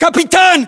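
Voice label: rank captain, battlechatter, Russian; localized english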